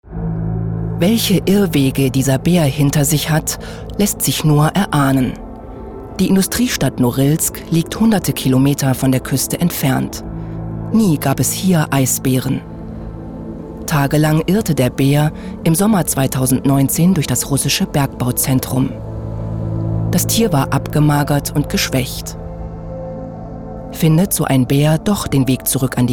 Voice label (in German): Dokumentation; Rentiere auf dünnem Eis; Arte